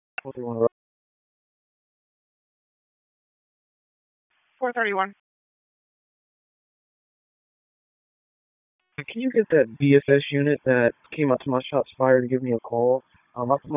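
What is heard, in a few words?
Raleigh Police Southeast District Dispatch, p25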